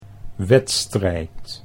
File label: "wedstrijd", Dutch